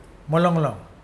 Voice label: nausea, Mokilese